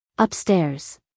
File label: "upstairs", us female